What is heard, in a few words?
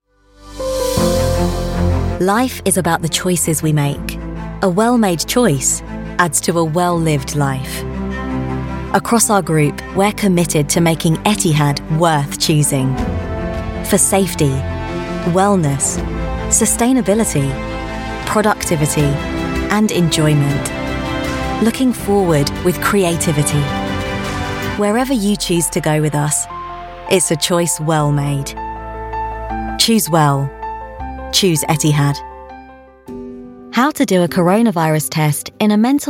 corporate